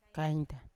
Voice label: "kainta", Yawa